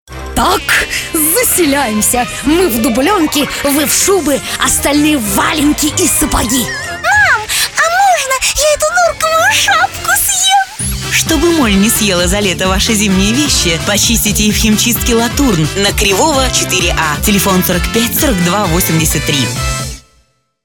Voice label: Игровой ролик химчистка

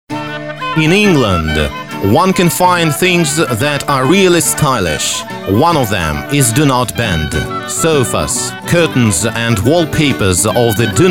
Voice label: Реклама мебельного салона, анг.

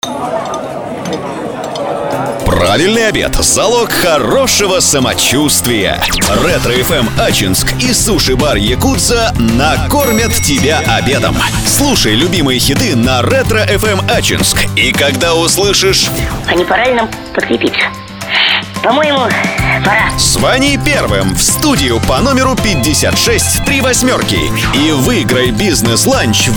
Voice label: Еда Промо ролик; RetroFM

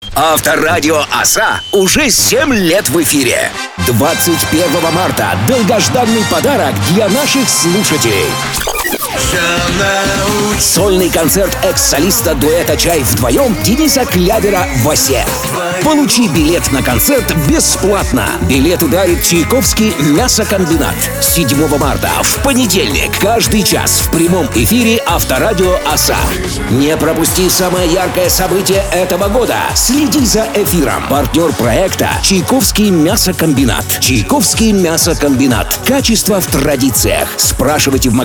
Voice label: Информационный промо-ролик; Авторадио-Оса 7 лет

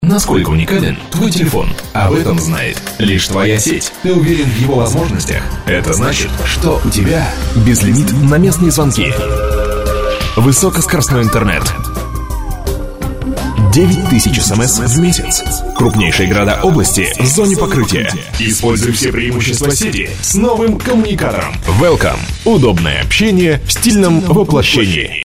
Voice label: Озвучка к видео; skylynk alpha 3

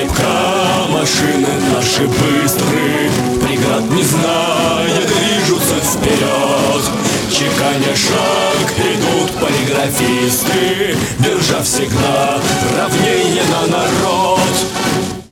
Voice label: Музыкально-игровой ролик типография

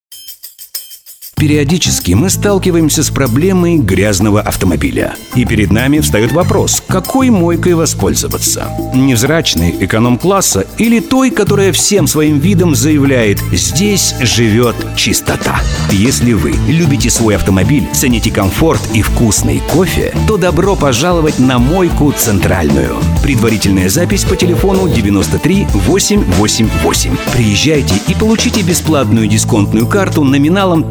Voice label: Мойка радио; МойкаЦентральная

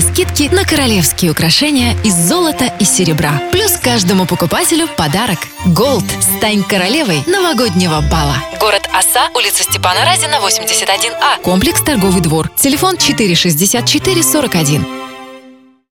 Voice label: Игровой ролик - ювелирный салон

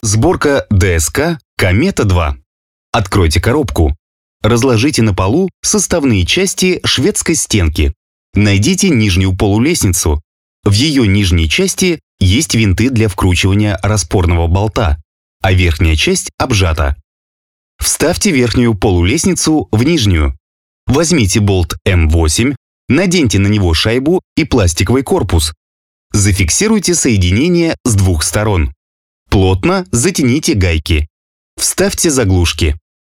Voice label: Озвучка видеоинструкции

